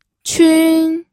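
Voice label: "qun", Mandarin Chinese, first tone; pinyin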